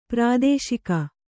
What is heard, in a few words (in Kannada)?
"ಪ್ರಾದೋಷಿಕ", Kannada